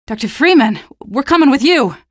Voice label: squad greet, female; Half-Life 2